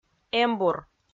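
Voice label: "эмбур", Komi, female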